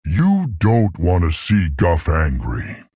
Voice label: male tauren threaten